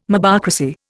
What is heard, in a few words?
"mobocracy", Indian